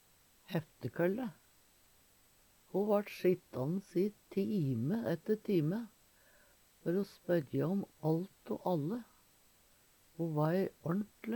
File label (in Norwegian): "heftekølle", Numedalsmål